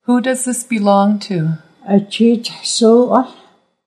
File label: Who does this belong to, Nuu-chah-nulth